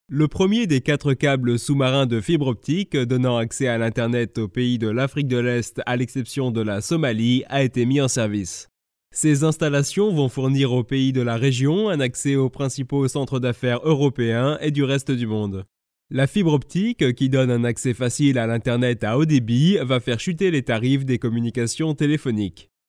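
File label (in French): French, male, narration; voice demos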